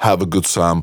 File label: Phrase Pitched; Vengeance Samples